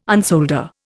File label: "unsolder", Indian